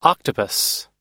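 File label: "octopus", American English; octopus /ɑ́:ktəpəs; タコ、(食用としての)タコ(の身)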